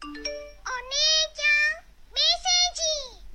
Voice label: onii chan message notification ringtone